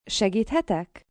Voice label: May I Help You, Question, foreign, female Hungarian; Talking Sound Effects; human voice